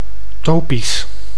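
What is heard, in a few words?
"topisch", Dutch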